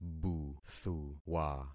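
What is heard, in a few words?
"buthuwa", concatenated syllables; Affixing experiment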